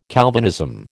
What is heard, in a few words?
"Calvinism", American